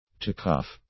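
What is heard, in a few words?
"to cough", English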